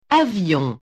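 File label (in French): "avion", French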